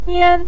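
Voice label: "nian", Mandarin Chinese neutral tone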